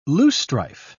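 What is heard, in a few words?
"loosestrife", British English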